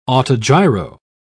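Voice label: "autogyro", English, British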